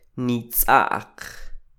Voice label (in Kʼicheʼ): nitz'a'q, Quiché; pequeños(plural predicativo); small(plural predicative)